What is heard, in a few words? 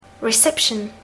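"reception", English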